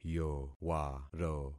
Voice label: "yowaro", concat syllables; Affixing experiment

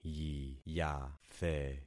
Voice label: "yiyathe", concat syllables; Affixing experiment

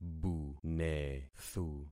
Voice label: "bunethu", concat syllables; Affixing experiment

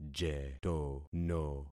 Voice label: "jetono", concat syllables; Affixing experiment

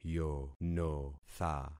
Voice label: "yonotha", concat syllables; Affixing experiment

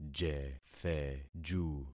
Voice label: "jetheju", concat syllables; Affixing experiment

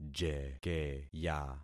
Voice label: "jekeya", concat syllables; Affixing experiment

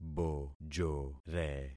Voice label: "bojore", concat syllables; Affixing experiment

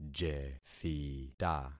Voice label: "jethita", concat syllables; Affixing experiment

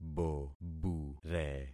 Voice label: "bobure", concat syllables; Affixing experiment

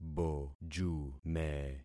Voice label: "bojume", concat syllables; Affixing experiment

